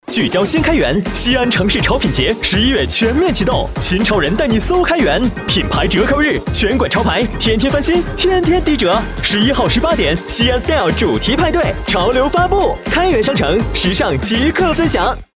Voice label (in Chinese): 广告 促销 商城促销 激情, 男国